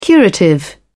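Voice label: "curative", British English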